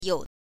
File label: "you", chinese